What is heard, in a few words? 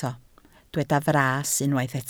"fras", Welsh